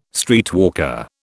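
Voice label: "streetwalker", British English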